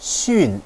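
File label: "xun4", Chinese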